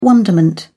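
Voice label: "wonderment", British English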